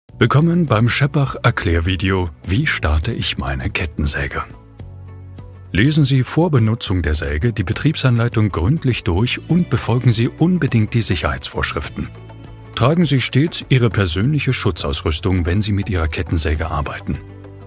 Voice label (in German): Hoerprobe Erklaervideo; scheppach